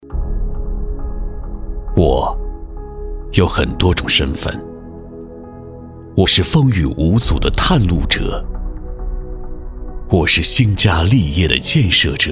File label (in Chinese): 男 带乐; 特种师